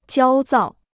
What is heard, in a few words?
"焦躁", Chinese